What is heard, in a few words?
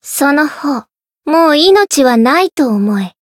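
Voice variable chant, Japanese; leviachan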